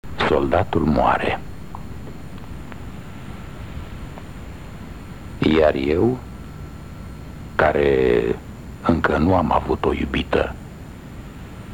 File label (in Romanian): Lectura Poezie; Radio Romania Actualitati; Kavafis; Soldatul moare